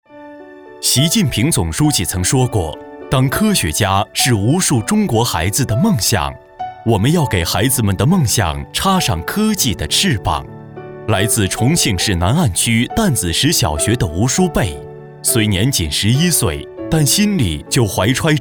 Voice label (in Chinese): 专题 亲切 自然